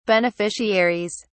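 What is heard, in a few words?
"beneficiaries", English, British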